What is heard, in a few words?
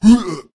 human male wound